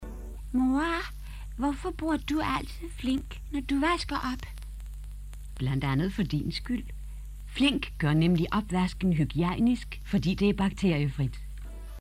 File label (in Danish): Reklame Flink Opvaskemiddel